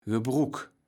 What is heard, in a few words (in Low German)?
"Gebruck", Platt